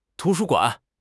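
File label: "tu2 shu1 guan3", Chinese; HSK; HSK3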